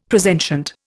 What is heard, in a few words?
"presentient", Indian; Dictionary